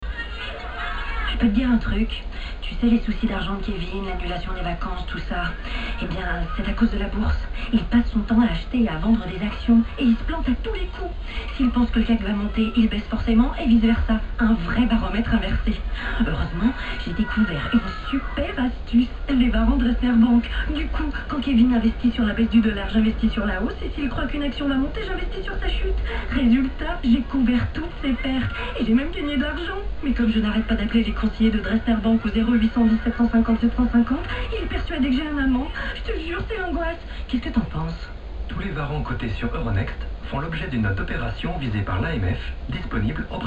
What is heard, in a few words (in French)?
publicite; warrants; perdant